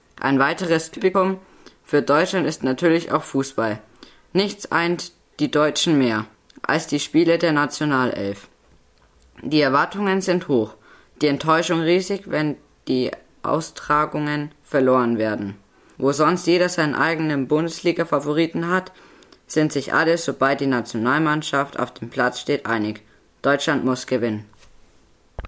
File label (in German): Sport deutsch